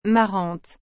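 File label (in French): "marrante", French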